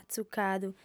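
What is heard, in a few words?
"tzucadu", Sardinian, female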